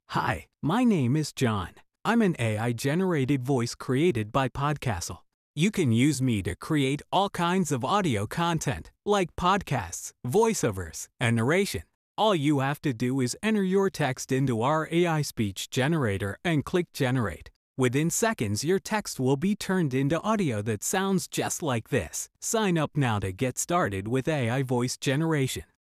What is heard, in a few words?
Male Voice Sample